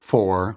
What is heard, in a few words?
"four" announcer; numbers and letters